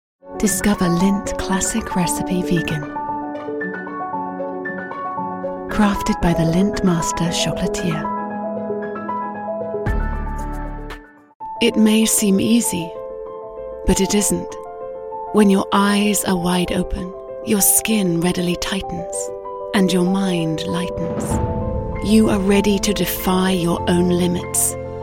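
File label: British English Commercial; GoLocalise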